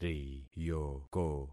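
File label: "riyoko", concatenated syllables; Affixing experiment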